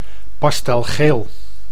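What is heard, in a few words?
"pastelgeel", Dutch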